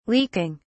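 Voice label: "leaking", English, British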